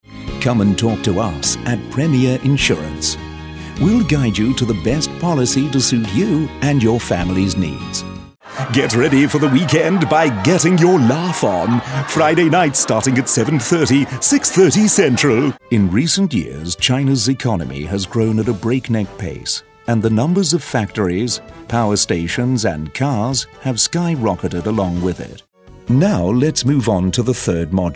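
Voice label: British English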